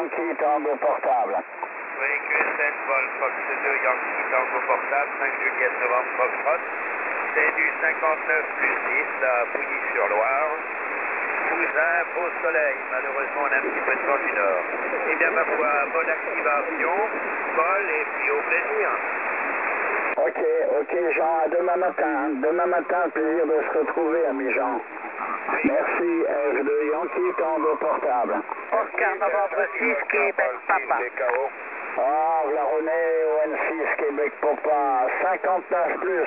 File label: QSO 7068 kHz